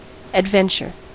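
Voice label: "adventure", American English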